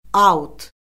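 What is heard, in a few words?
"aut", Azerbaijani; Orfoqrafiya Lüğəti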